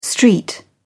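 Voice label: "st. joseph", British English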